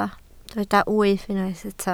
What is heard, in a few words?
"wyf", Welsh